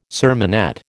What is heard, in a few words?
"sermonette", American